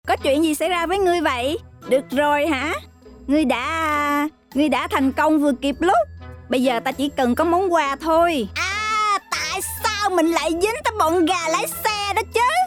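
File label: Vietnamese, female, character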